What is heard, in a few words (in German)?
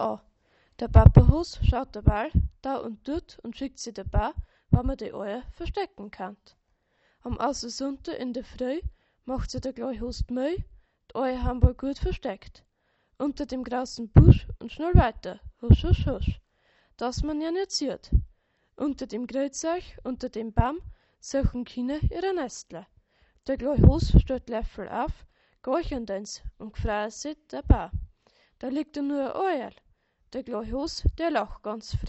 Dialekt